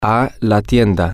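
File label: to The Store, Spanish, male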